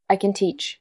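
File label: VoiceActing Spanish, female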